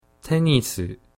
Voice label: "tenisu", Japanese